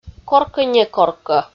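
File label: "коркӧ-некоркӧ", Komi, female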